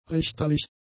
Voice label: "richterlich", German; adjectives